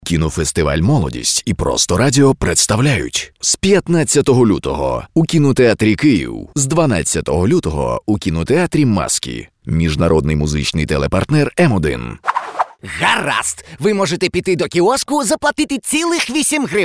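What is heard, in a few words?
Ukrainian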